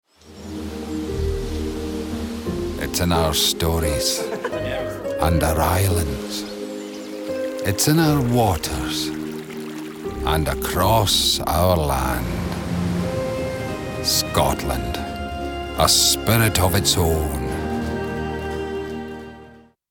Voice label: voiceover commercial scotland, Scottish voice